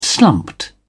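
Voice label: "slumped", English, British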